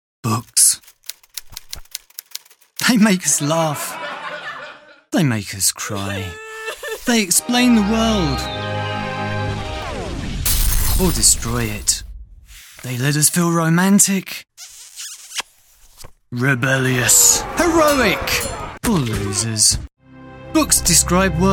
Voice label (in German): Booktrailer